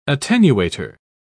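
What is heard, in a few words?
"attenuator", English, British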